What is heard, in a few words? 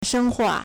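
"shénhuà", Chinese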